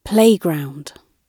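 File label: "playground" spoken, British English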